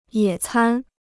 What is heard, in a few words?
"野餐", Chinese